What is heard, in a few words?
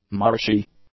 "marshy", English; adjectives